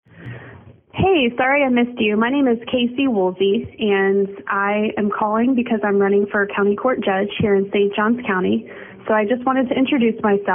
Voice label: Ringless Voicemail Ad; Woolsey